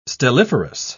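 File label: "stelliferous", British English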